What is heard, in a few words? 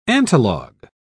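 "antilog", British English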